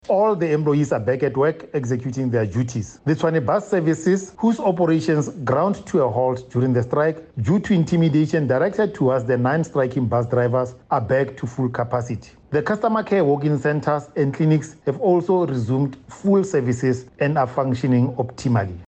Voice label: ENG; Strike